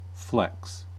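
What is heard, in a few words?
"flex", US English; pronunciations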